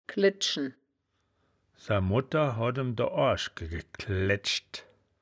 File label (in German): "gleddschen", wittlich; das wittlicher woerterbuch; woerterbuch vertonung